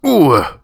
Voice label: PAIN; DN3D; Duke Nukem 3D